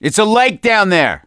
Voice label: misc directional, voice; gambler